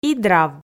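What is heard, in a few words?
"идрав", Komi, female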